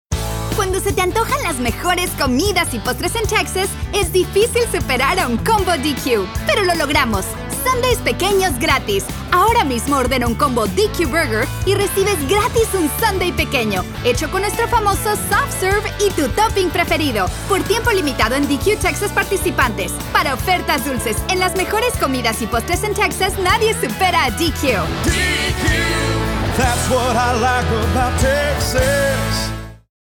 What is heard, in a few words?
Spanish Radio; Free Sundae